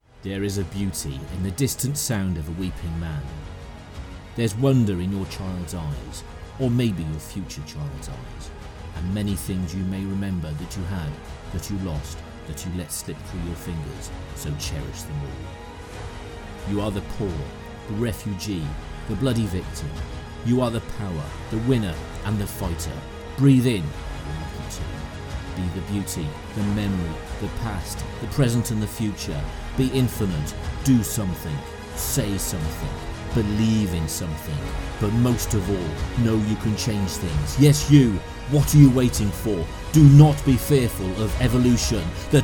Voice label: Monologue

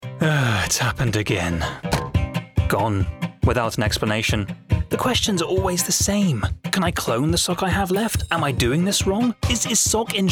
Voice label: Commercial Reel

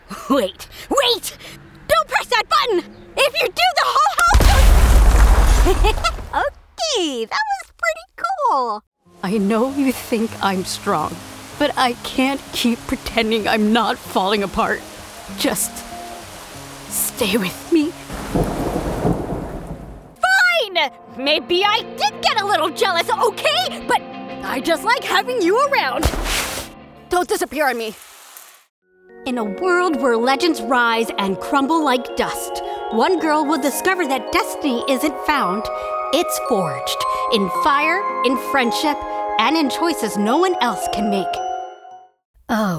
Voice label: Anime

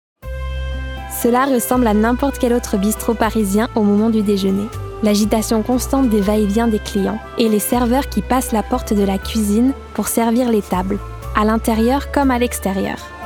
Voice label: Documentaries FRENCH

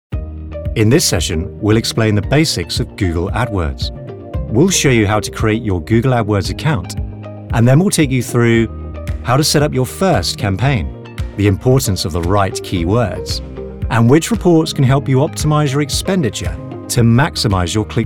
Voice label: Narrative

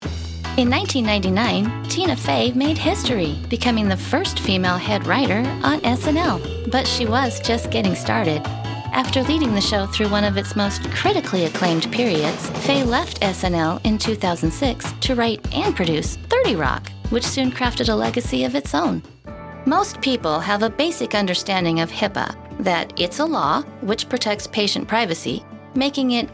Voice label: narration

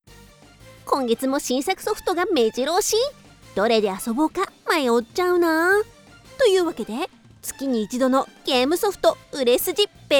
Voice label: Commercial with Character Voice

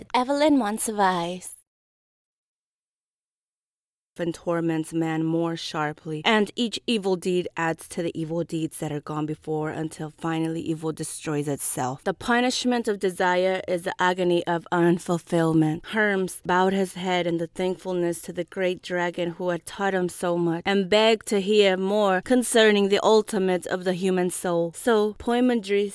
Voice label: storytelling

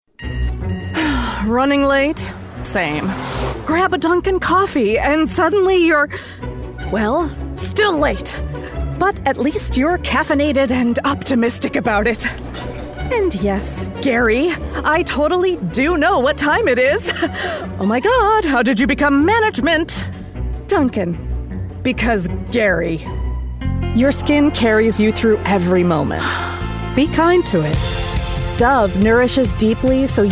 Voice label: Commercial Reel

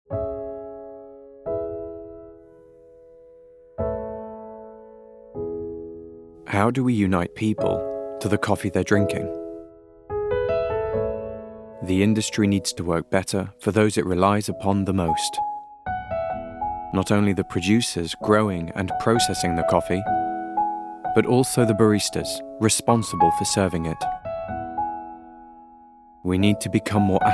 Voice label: Captivating Corporate

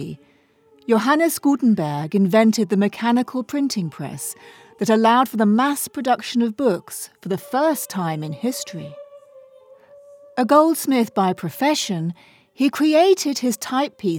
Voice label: Narrative

